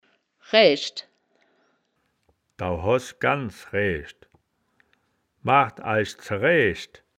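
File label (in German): "raeaeschd", Wittlicher Wörterbuch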